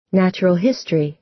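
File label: "natural history", American English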